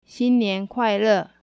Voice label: "xinniankuaile", Chinese new year greetings